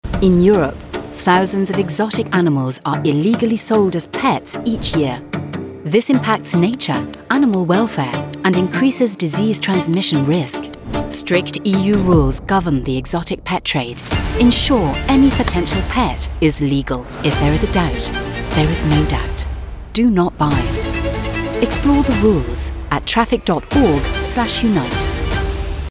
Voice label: UK English; WWF